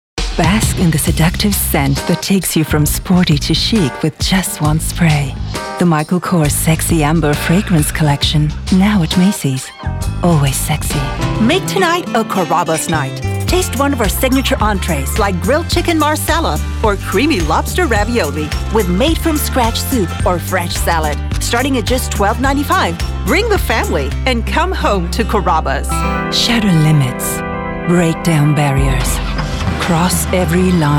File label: Commercials